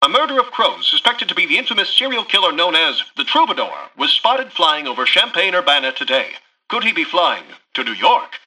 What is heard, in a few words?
Newscaster headline